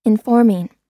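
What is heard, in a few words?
"informing", American English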